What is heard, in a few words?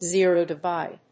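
"zero divide", English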